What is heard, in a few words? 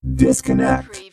Robot voice "disconnect"; lynne publishing